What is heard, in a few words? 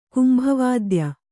"ಕುಂಭವಾದ್ಯ", kannada